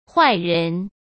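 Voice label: "坏人", Mandarin Chinese; chinese-flashcards; hsk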